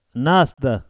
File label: "nast", Pashto